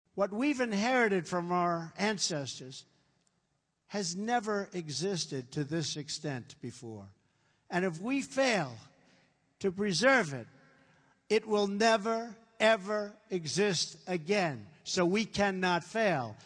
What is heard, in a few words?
Polish speech; TRUMP; INHERITED